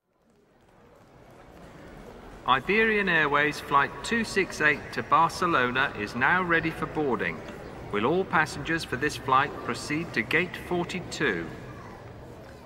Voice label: Airport Announcement English